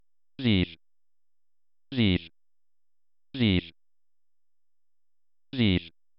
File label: my name french